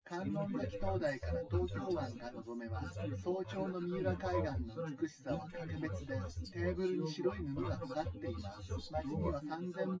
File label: test male synthconv 130ms 1m src